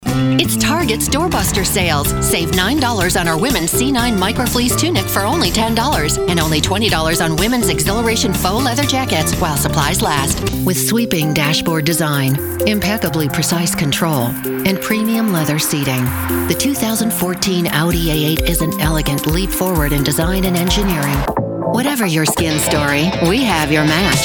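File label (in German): American English Werbung Variabel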